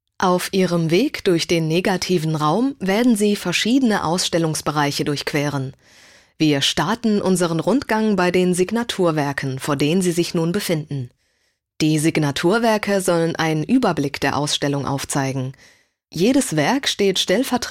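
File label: German audioguide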